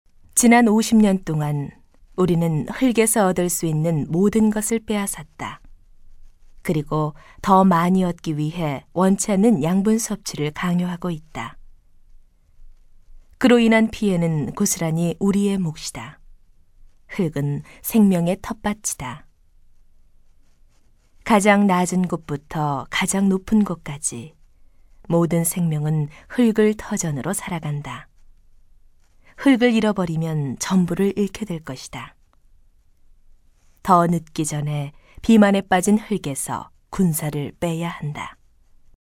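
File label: Korean female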